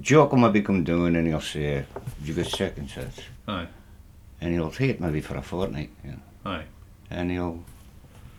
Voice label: Northern and Insular Scots, Mid-Northern A - Peterhead